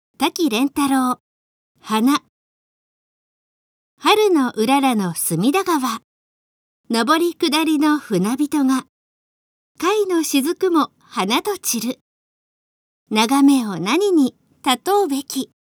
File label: girl; 花